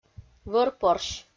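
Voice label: "вӧрпорсь", Komi, female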